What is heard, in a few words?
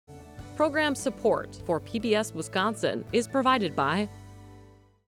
Preamble, female; Promotions; Rebrands